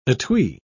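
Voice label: "etui", British English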